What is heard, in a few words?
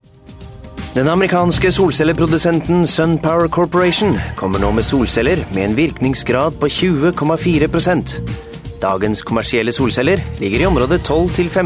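Norwegian; Scandinavian, Male, 20s-30s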